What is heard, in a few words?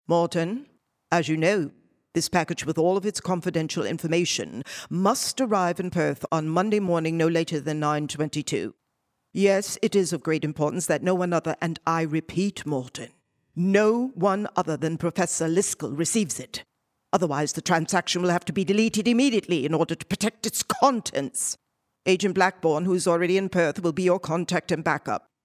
narration; Buenaventura